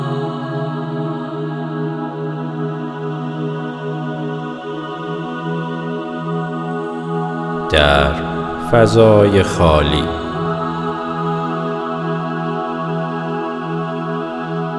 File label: tuning in with your heart persian, meditation; meditation samples